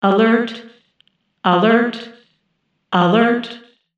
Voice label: Voice Computer Room Female Alert